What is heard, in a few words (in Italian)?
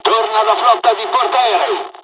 evac, dialogs italian; War Thunder